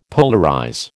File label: "polarize", American